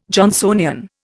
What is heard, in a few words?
"Johnsonian", Indian